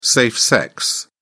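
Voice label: "safe sex", English, British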